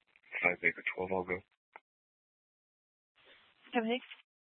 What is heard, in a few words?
Mesa PD Fiesta Dispatch